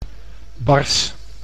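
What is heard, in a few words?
"bars", Dutch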